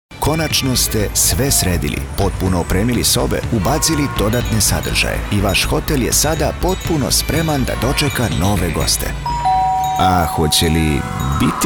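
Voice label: Serbian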